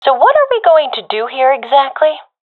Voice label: request plan, professional fem; vo; Counter Strike Model Pack; CS:GO

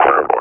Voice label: announce kill order, combine officer; Half Life Alyx; Half Life Model Pack

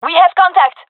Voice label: takingfire, gendarmerie fem; vo; agents

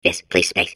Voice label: babble; Portal 2

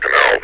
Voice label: canal, metropolice vo; Half Life Alyx

